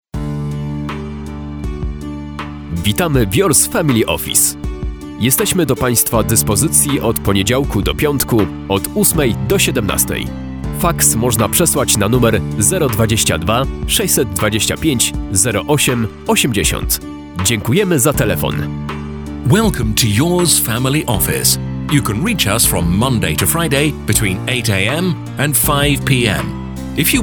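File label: interactive voice response; YFO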